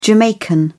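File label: "jamaican", British English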